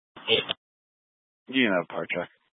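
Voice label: Incident Tactical 1, Scanner audio recording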